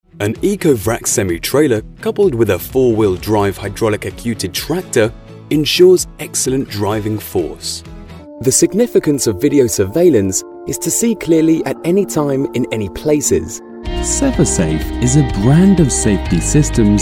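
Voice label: English, British, male, corporate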